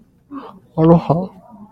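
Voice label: "aloha", Japanese, male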